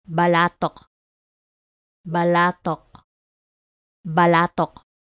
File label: "Balatoc", pronunciation